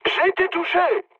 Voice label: aircraft damaged mood med, speech fr; War Thunder